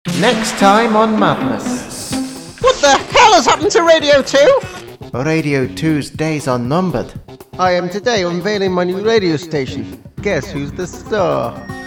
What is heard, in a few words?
commercial; radio freedom